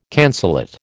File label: "cancellate", American